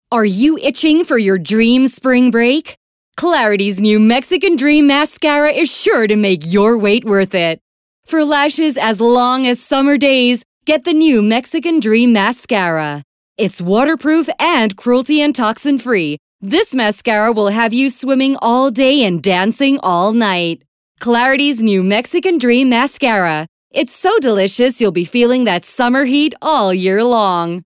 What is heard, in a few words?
Bright American Neutral